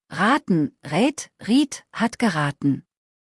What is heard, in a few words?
"raten", deutsch; verben kurzliste